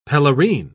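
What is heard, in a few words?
"pelerine", English, British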